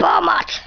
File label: "Bummer", Danish